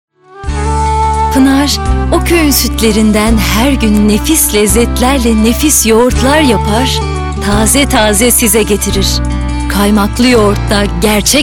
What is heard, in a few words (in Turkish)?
reklam